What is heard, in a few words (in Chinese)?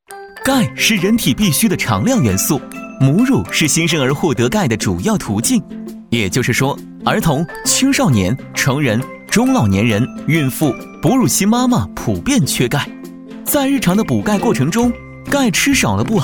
男 MG 科普动画; 男C13-全能型 自然诉说